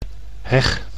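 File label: "heg", Dutch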